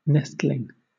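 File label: "nestling", English, British accent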